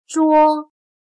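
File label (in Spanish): "捉", chino mandarin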